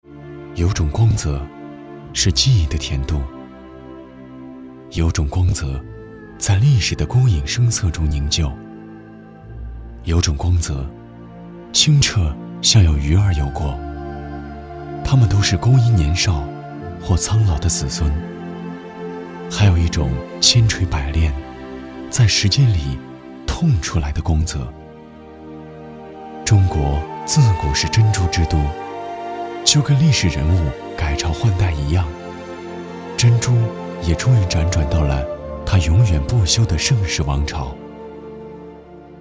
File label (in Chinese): 温情讲述